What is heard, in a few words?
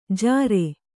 "ಝಾರೇ", Kannada